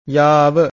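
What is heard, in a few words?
"yaava", Pali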